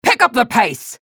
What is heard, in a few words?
chatter negative; galena; respawn